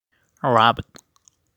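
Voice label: "Rabit", pronunciation; animals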